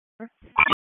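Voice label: Sheriff's Office Dispatch 1, p25; wake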